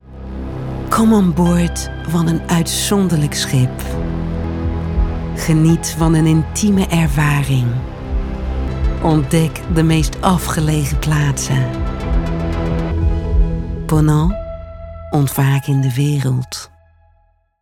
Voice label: NL DUTCH; PONANT